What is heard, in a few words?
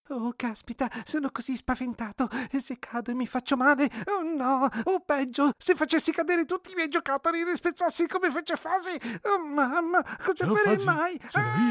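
Italian, Characters; GoLocalise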